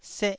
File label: "seh1", taiwanese syllable